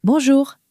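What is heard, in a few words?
"bonjour", French, female; flashcards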